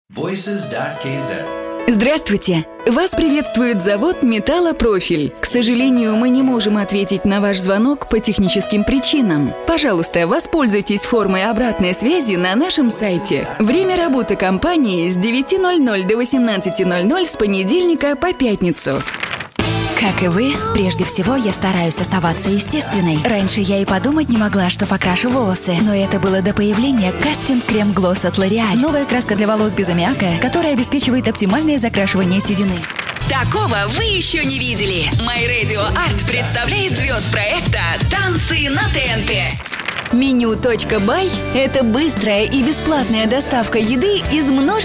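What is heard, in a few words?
Russian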